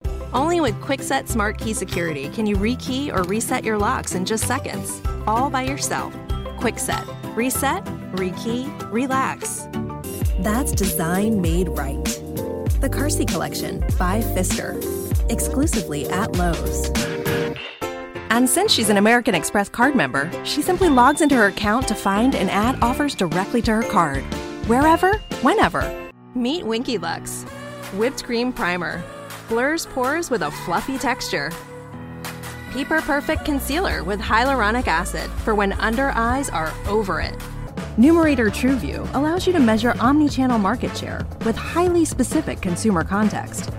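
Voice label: commercial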